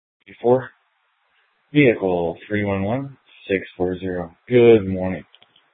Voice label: Sheriff, scanner P25

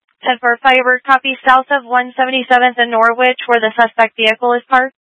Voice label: Mutual Aid-Intersystem Interop B, scanner

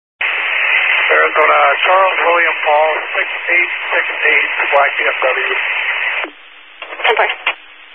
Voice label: Highway Patrol, Phoenix Metro East Area, scanner

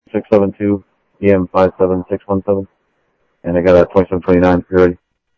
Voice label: Info Sheriff Common, scanner audio; MCSO - Info Sheriff - Common; ThompsonPeakScot

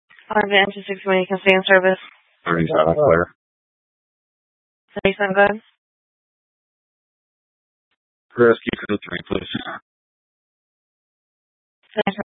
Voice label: Fire Dispatch; K8 - FDCOM 8 - South; B- Phx Fire and Public Works

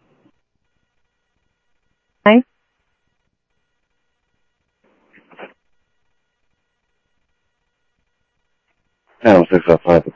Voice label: Sheriff, scanner audio

